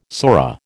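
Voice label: "sora", American English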